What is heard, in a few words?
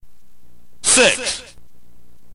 narrator